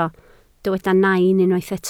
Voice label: "nain", Welsh